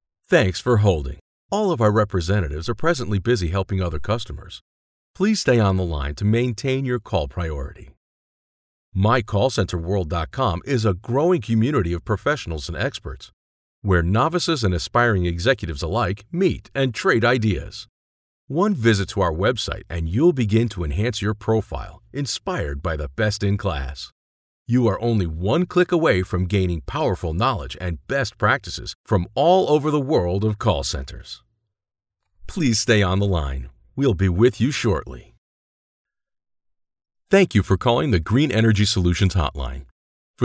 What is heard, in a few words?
English American IVR